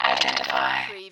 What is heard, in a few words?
Robot voice, identify; lynne publishing